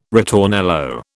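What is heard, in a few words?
"ritornello", British English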